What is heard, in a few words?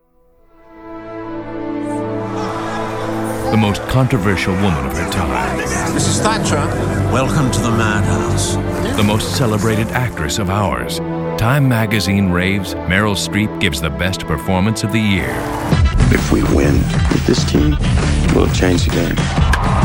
TRAILER